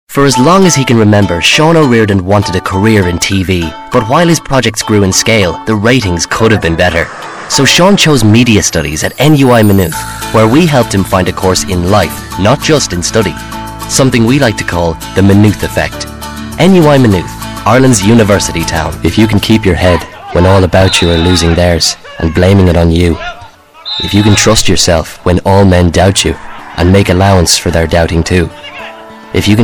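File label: English Irish, male, commercial; voice demos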